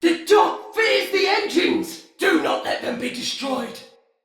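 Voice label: Engine Destroy Banneret, Battle Barks, Male; Sinecure; Styn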